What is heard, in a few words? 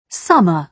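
"summer", British English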